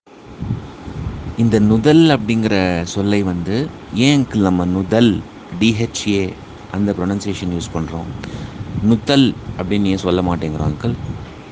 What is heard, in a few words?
Tamil uccarippu